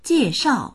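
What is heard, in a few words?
"jie4shao4", Chinese; jièshào; 介绍; 紹介する、説明する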